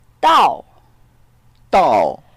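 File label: "dao4", Mandarin Chinese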